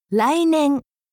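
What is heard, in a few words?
"rainen", Japanese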